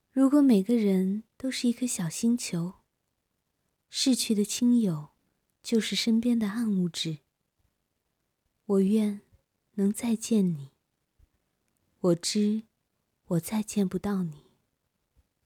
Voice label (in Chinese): 深夜入睡文